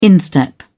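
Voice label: "instep", British English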